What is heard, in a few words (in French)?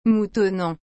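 "moutonnant", French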